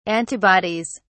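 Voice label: "antibodies", English, British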